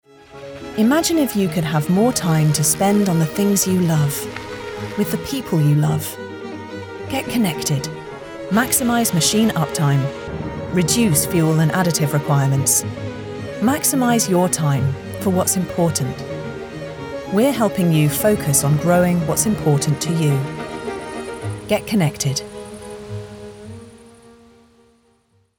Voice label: english british corporate videos

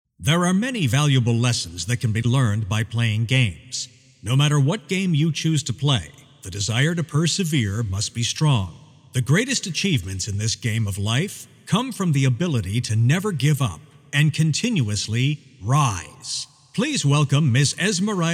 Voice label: english american announcements; American voice actor

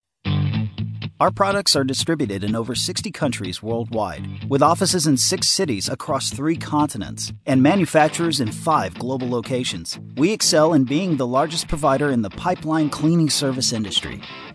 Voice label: english american corporate videos; dublador localizado na área metropolitana de Los Angeles

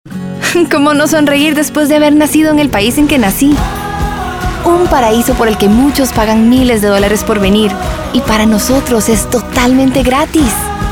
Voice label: spanish costa rican commercial

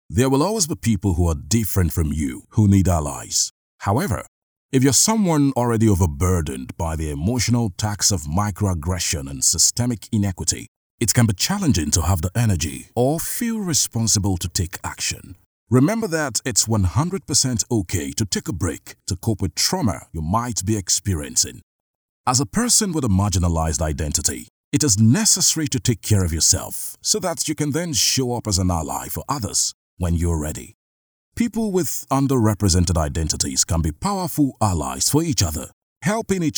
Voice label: english african e-learning

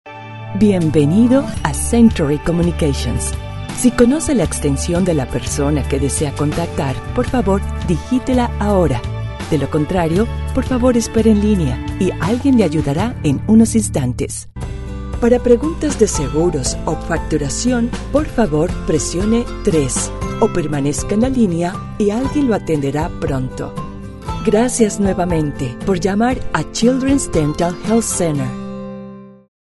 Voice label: spanish latin american ivr

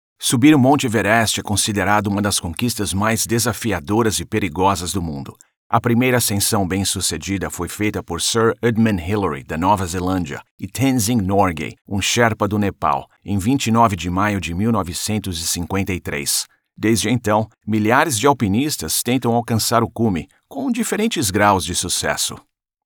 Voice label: portuguese brazilian narration

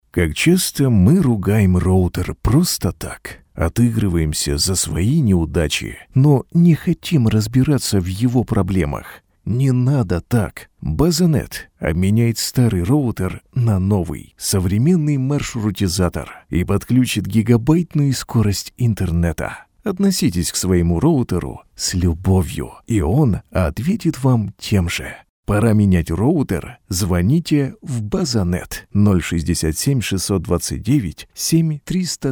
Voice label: russian corporate videos

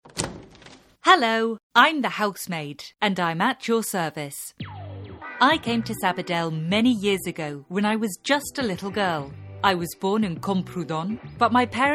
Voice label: english british audio guides

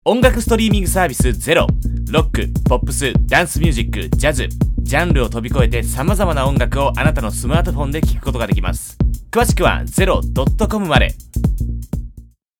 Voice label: japanese commercial

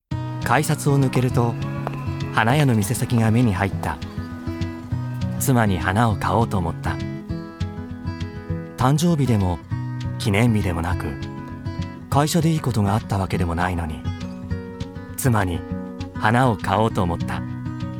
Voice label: japanese commercial; Japon; artiste voix off japonaise

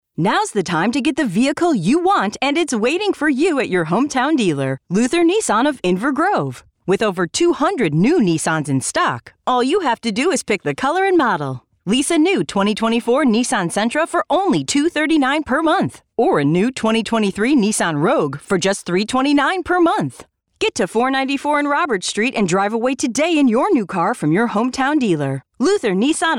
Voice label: english american automotive

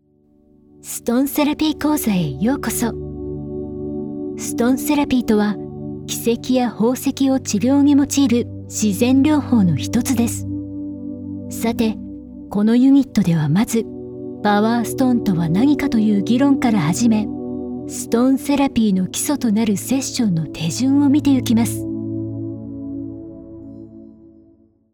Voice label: japanese e-learning

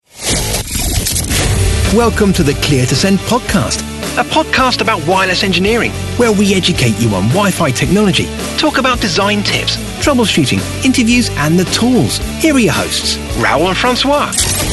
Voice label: english british podcasts

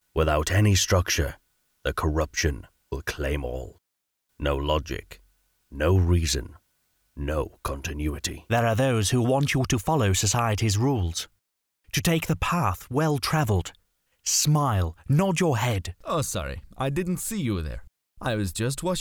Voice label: english british animation

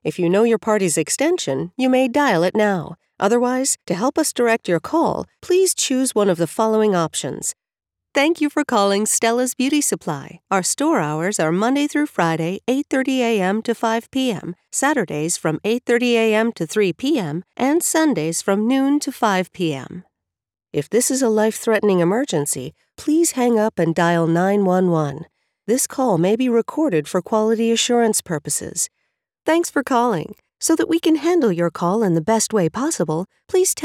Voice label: english american ivr

